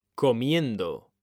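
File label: "COMIENDO", Spanish, male; mekanta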